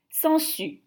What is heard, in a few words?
"Sangsue", French